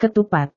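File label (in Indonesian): "ketupat", Indonesian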